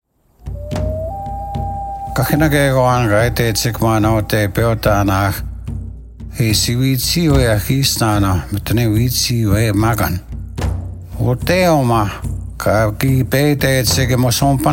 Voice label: Cree